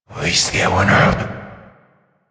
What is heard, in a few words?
Possessed Vocal Enemy Spotted; Enemies; Warframe; Priest Quest; Possessed Red Veil